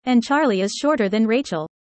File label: user fast; how tall